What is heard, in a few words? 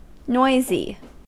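"noisy", US English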